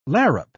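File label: "larrup", British English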